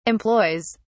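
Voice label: "employs", British English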